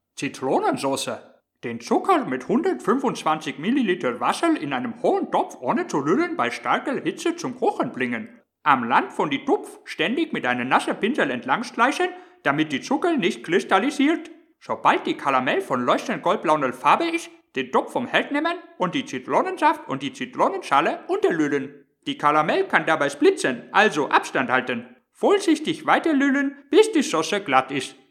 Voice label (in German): Asiate, Dialekte